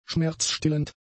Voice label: "schmerzstillend", German; adjectives

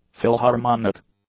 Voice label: "philharmonic", english; adjectives

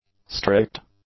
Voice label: "strict", English; adjectives

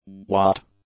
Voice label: "what", english; adjectives

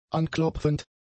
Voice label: "anklopfend", German; adjectives

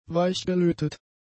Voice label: "weichgelötet", German; adjectives

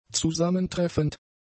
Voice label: "zusammentreffend", German; adjectives

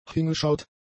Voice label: "hingeschaut", German; adjectives

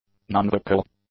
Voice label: "nonvocal", english; adjectives

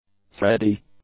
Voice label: "thready", English; adjectives

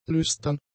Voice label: "lüstern", German; adjectives

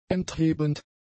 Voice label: "enthebend", German; adjectives

